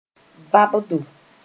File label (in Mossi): "vãbdo", moore